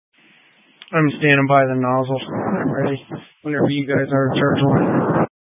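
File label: Incident Tac 2, Scanner audio recording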